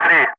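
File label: Speech, English, Digits, 3 continue; Ka-50; Wingman; DCS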